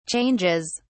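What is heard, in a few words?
"changes", British English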